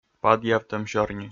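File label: "падъявтӧм сёрни", Komi, male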